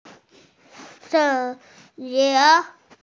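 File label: "sen1lin2", Mandarin Chinese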